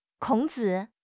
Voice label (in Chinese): "Kong3 zi3", 汉语口语; 汉语口语新时代-初级下